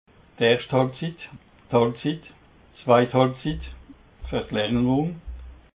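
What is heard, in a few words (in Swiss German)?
"erscht", Haut Rhin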